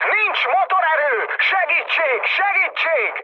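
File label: Hungarian damaged engine mood high, speech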